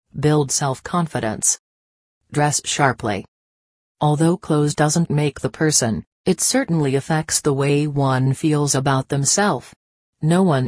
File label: English Female